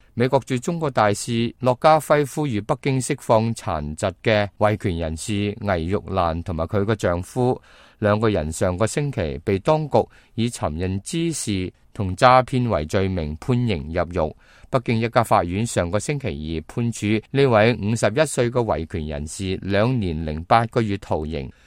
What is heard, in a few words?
cantonese, news